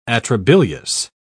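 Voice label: "atrabilious", English, British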